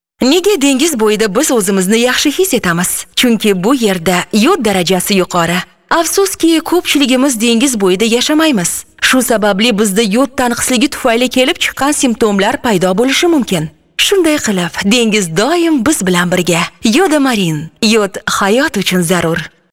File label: Uzbek voice over